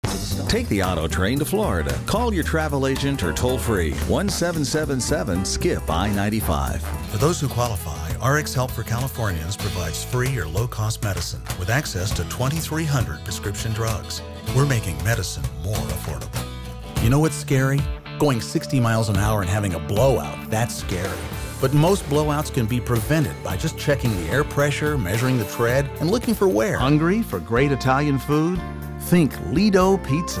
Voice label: Commercial Voice Over